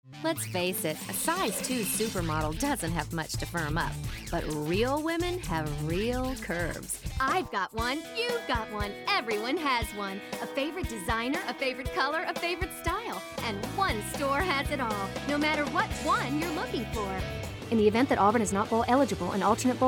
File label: Promos, voiceover